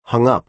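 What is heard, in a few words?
"hung-up", American English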